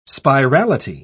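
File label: "spirality", British English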